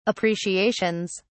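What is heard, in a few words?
"appreciations", British English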